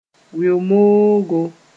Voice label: "weo-moogo", Mooré